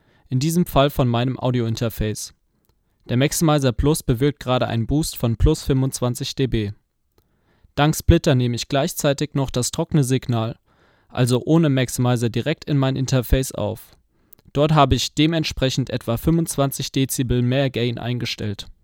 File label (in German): direktsignal, dynamische Mikrofone; nowsonic maxximizer plus